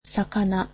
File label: "fish", japanese